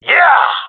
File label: round winning, male; player vo; Counter Strike Model Pack; CS:GO